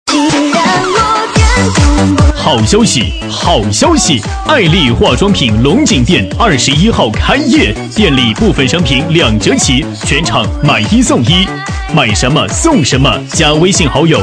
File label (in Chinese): 男 促销 化妆品, 促销男配; 爱丽化妆品